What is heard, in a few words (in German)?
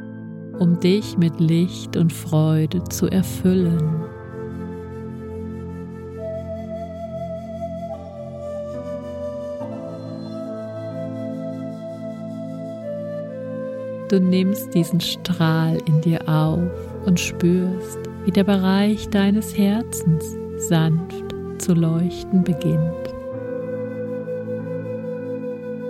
Meditation fuer positive Energie am Morgen